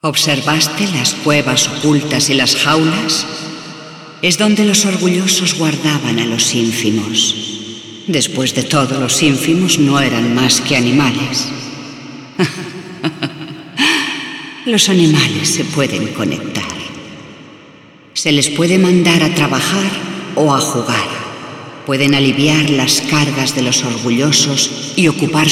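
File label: Speech spanish; Yeesha; Tldn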